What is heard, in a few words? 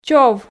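"чов", Komi, female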